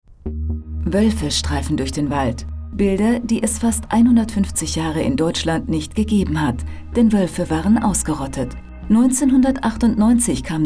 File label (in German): Doku; Unter deutschen Baumen; ZDF; Drehscheibe